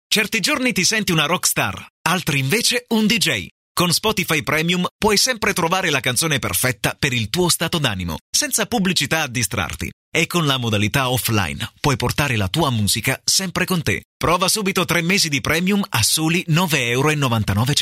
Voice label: short funny ad